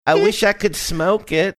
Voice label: australian